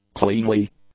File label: "cleanly", English; adjectives